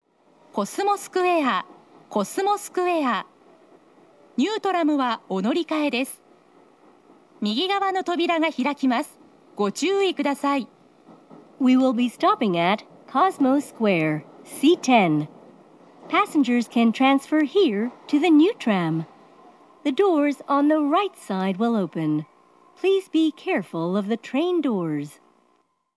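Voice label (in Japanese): 大阪メトロ中央線車内放送, コスモスクエア到着; コスモスクエア到着(1番線)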